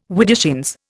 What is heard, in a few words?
"widdershins", Indian